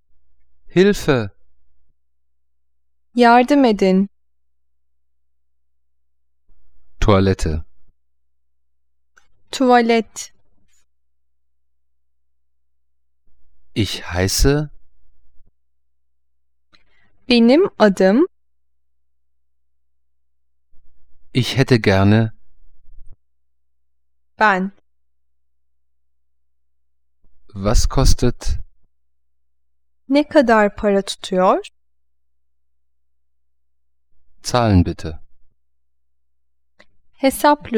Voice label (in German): Tuerkisch